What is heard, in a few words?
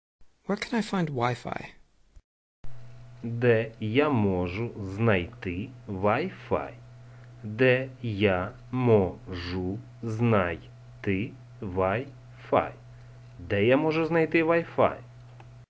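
Where can I find Wi-Fi, Ukrainian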